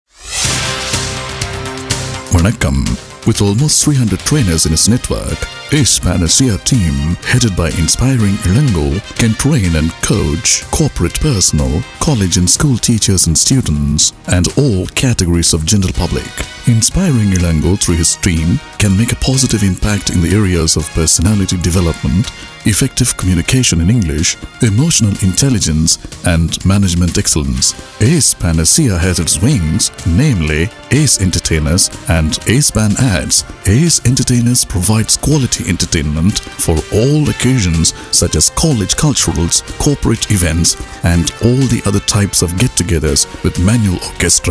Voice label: Voice-over